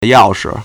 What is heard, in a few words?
"yao4shi", Chinese